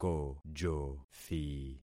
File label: "kojothi", concat syllables; Affixing experiment